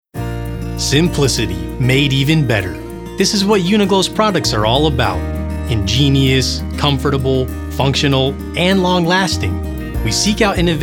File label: Corporate; UNIQLO